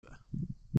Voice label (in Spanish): MSI Immerse GH40 ENC sin ANC